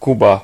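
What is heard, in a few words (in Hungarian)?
"cuba", Hungarian; gcompris; geography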